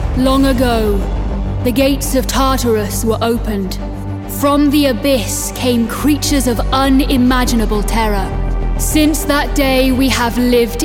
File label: english british video games